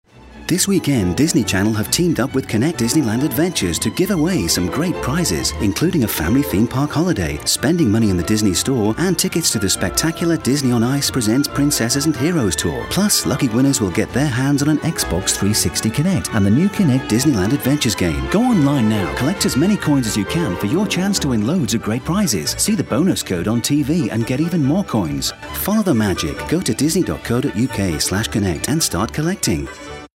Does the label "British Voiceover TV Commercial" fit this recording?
Yes